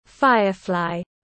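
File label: "Firefly", English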